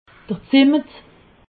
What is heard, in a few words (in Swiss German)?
"zimmet", alsace